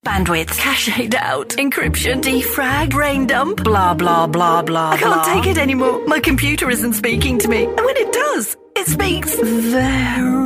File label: tv radio commercials mixed audio showreel